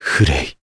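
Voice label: Vox Story Japanese; Clause; Fray; kingsraid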